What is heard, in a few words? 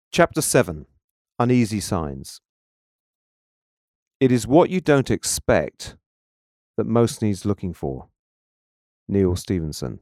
Narrative